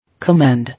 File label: "Commend", English